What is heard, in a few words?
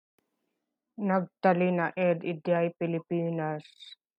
Ilocano